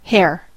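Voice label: "hair", English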